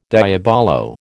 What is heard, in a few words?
"diabolo", American